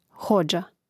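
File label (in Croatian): "hodža", hrvatski; mreznik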